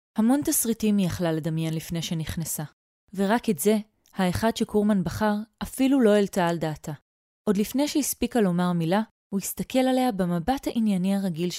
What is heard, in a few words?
Hebrew audiobooks